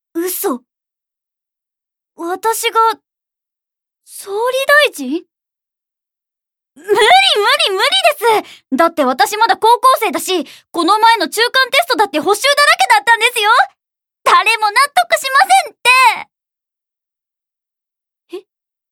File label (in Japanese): 女子高生